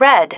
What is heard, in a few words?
"RED", female